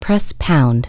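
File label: press pound, English; PBXNSIP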